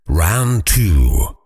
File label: "round 2", voiceover fighter; kenney voiceoverfighter